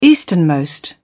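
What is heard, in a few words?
"easternmost", British English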